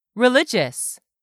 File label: "RELIGIOUS", English; 3000 words